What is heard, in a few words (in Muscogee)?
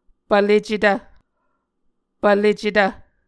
"pvlecetv", Muscogee